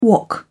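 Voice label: "wok", English, British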